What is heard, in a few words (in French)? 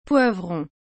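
"poivron", French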